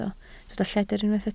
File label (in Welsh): "lledr", Welsh